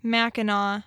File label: "Mackinac", "Mack-in-awe", Michigan pronunciation